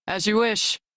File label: Crew Follow, Female; Dialog; en; Warframe; Railjack Crew; New Loka